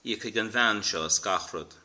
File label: "pay", Irish